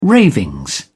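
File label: "ravings", British English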